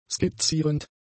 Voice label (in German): "skizzierend", german; adjectives